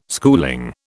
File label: "schooling", British